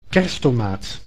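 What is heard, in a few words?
"kerstomaat", Dutch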